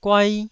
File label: "guai1", taiwanese